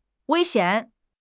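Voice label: "wei1 xian3", Mandarin Chinese; HSK; HSK4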